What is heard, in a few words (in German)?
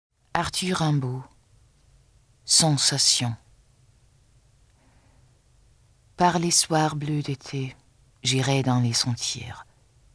Franzoesisch; Arthur Rimbaud